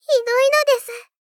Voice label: Moe Shock Hidoi nodesu

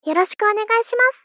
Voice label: Polite Join Yoroshiku onegai shimasu

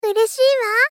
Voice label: Gentle Glad Ureshi wa

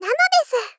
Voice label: Moe Others Nanodesu

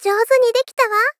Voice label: Gentle Success Jozu ni dekita wa

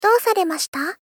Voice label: Polite Call Do sare mashita

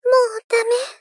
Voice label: Gentle Dying Mou dame; Rei Yumesaki

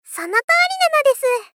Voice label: Moe Agree Sonotori nanodesu; Rei Yumesaki

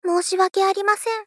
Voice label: Polite Apologize Moshiwake arimasen; Rei Yumesaki

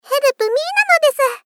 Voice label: Moe Help Me Help me nanodesu; Rei Yumesaki

